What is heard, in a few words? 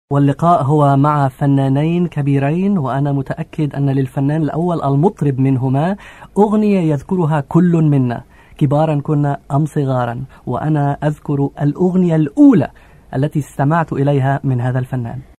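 arabic male voice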